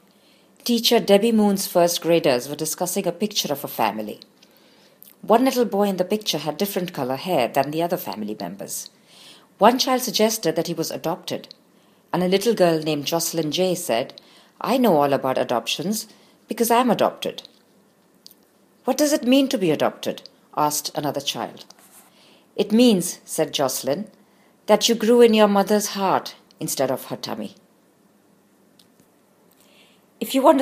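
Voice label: Hindi, accented English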